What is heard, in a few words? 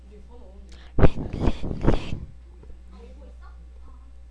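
"ring", spoken word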